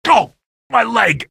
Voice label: my leg, male; Source Engine; video game; npc